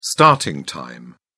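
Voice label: "starting time", British English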